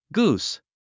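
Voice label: "goose", English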